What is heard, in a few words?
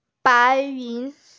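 "bai2yun2", Mandarin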